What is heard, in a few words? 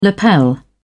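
"lapel", British English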